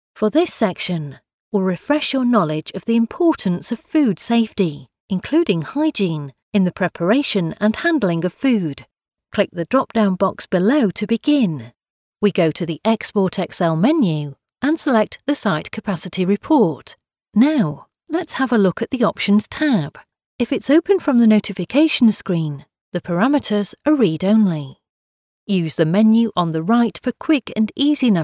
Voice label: english british e-learning

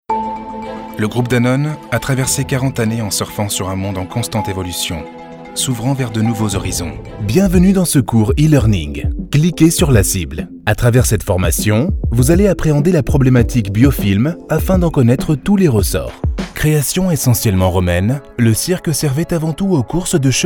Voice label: french corporate videos

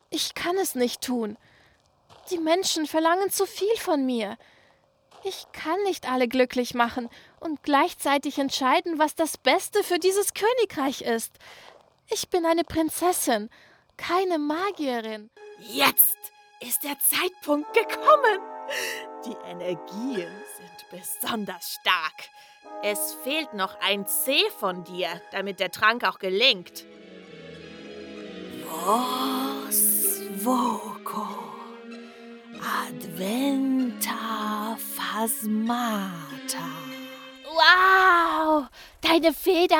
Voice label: german impersonations

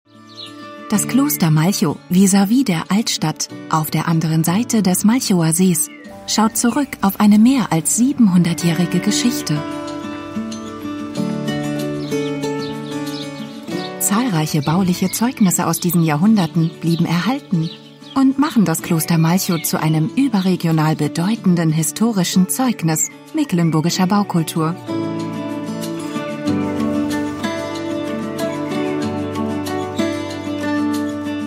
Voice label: german audio guides

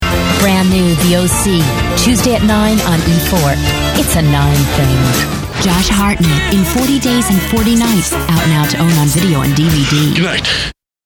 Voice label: english american promos

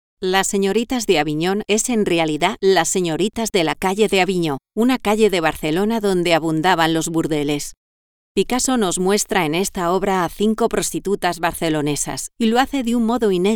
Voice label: spanish narration

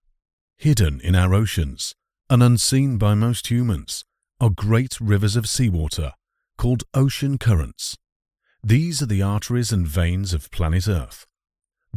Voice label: english british narration

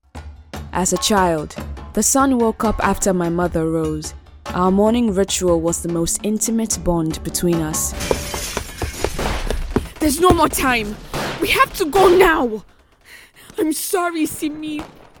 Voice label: english african animation

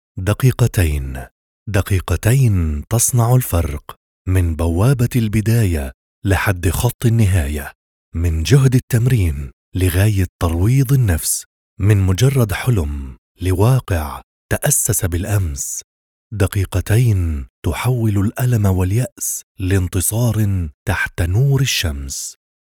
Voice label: arabic commercial; Palästina; arabischer Synchronsprecher

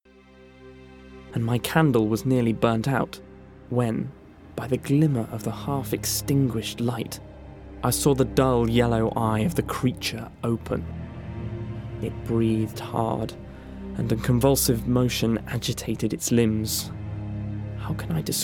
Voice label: english british narration

